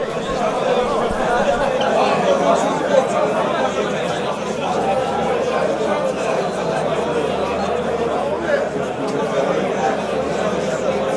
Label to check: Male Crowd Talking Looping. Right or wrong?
Right